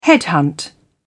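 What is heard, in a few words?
"headhunt", British English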